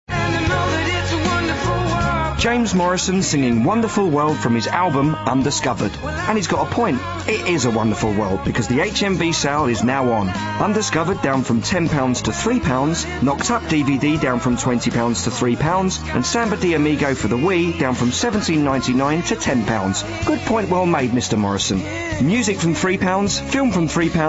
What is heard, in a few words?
Commercial